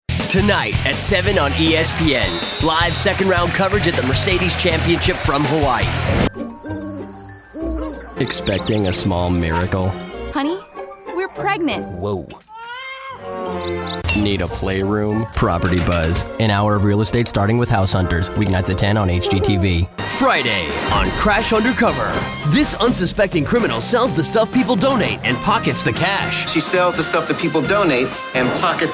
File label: promo men